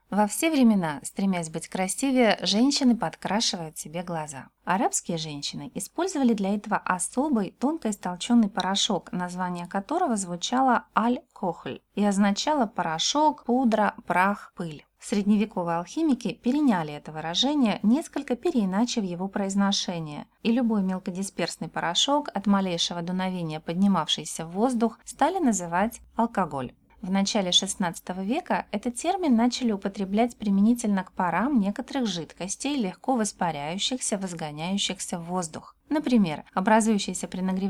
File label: Voice Rus